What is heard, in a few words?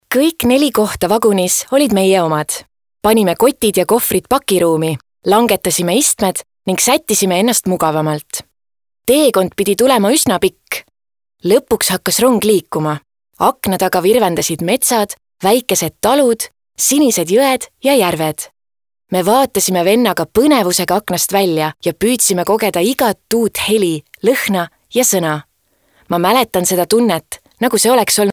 EST female, Casual